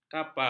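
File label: "kapar", Malay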